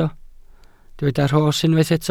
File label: "rhos", welsh